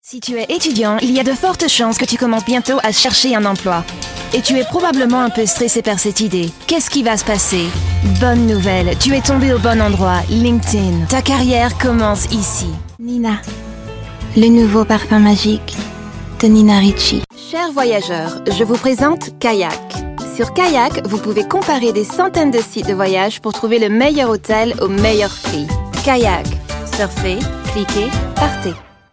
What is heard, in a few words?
French